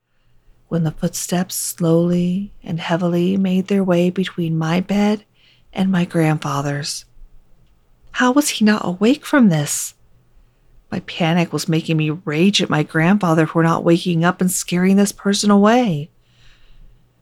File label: Scary Story